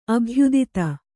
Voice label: "ಅಭಯದಾತೆ", Kannada

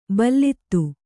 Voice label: "ಭಲ್ಲಾತ", Kannada

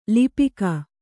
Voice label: "ಲಿಪಿಕ", Kannada; lipika; noun; = ಲಿಪಿಕಾರ.

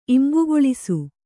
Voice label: "ಇಂಬುಗೊಳಿಸು", Kannada

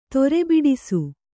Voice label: "ತೊರೆಬಿಡಿಸು", Kannada

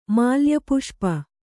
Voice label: "ಮಾಲ್ಯಪುಷ್ಪ", Kannada

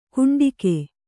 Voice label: "ಕುಂಡಿಗೆ", Kannada

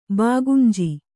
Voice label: "ಬಾಗುಂಜಿ", Kannada

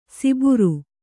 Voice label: "ಸಿಬುರು", Kannada

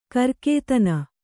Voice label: "ಕರ್ಕೇತನ", Kannada

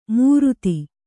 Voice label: "ಮೂರುತಿ", kannada

